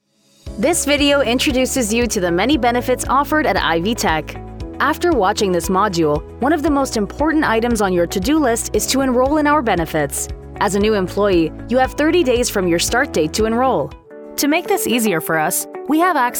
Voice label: English with French Canadian Accent